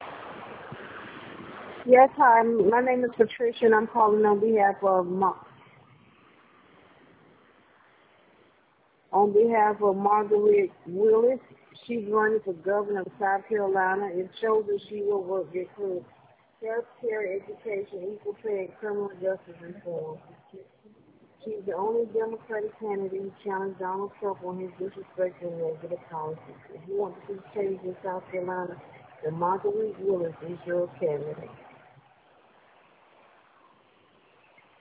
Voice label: voicemail